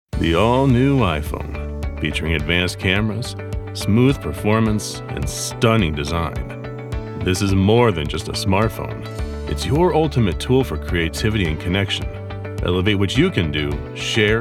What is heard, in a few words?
Commercial Demo